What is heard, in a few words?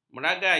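"meragi", Malay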